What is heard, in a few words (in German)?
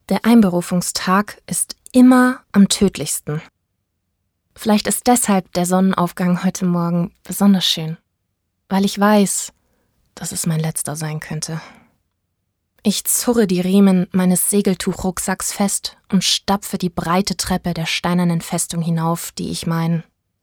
Hoerbuch Fantasy